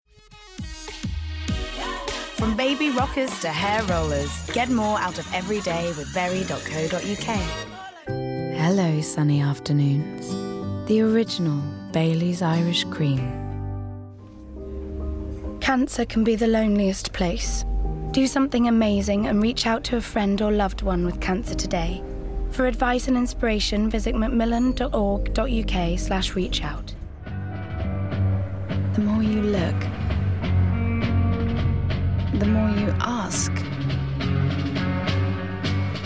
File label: Commercials Montage; Actress